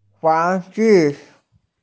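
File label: "toy", Mandarin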